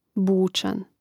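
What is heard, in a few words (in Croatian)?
"bucan", Hrvatski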